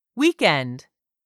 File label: "weekend", English; 3000 words